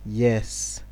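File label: "yes", Nigerian Pidgin, male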